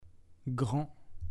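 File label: "Grand", French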